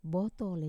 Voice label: "botol", Wamesa; bottle